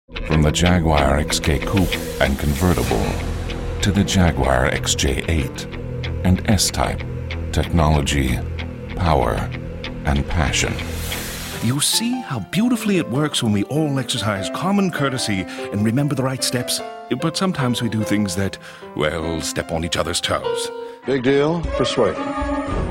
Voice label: men, commercial